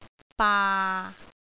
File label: "baa6", Cantonese